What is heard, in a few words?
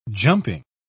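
"jumping", British English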